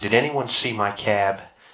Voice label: male inv 16k